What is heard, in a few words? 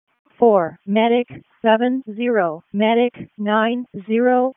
EMS ALERT, p25; wake